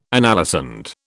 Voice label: "analysand", British